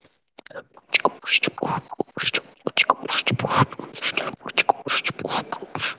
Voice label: voice message